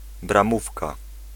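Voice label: "bramówka", Polish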